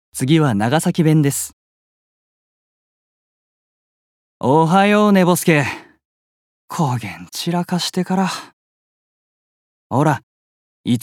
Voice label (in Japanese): 方言